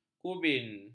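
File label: "kubin", Malay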